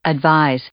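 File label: "advise", English; verb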